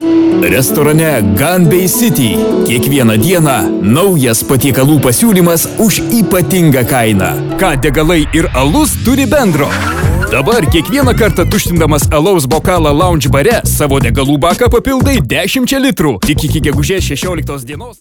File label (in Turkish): Litvanca Erkek, seslendirme